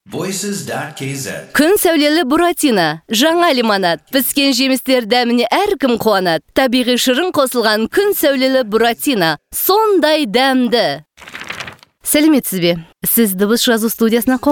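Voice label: Kazakh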